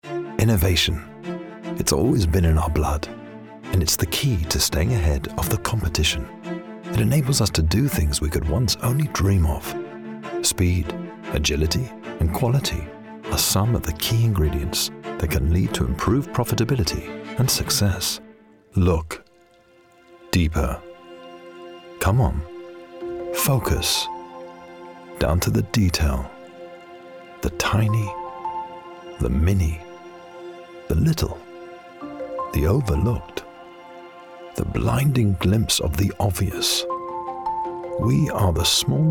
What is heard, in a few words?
corporate